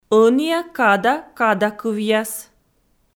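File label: "ӧнія када кадакывъяс", Komi, female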